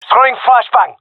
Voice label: throwing flashbang, gendarmerie male; vo